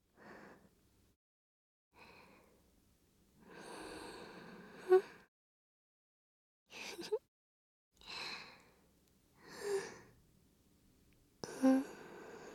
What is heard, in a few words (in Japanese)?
声のみ ノイズ除去なし; すーぱーあまえたいむ